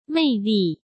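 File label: "魅力", Mandarin Chinese; chinese-flashcards; hsk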